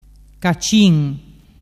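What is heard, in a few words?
"cacin", Vernazzese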